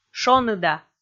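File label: "шоныда", Komi, female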